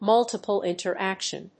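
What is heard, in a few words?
"multiple interaction", English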